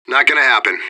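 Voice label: radio bot response negative; fbihrt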